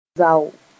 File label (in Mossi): "zao", moore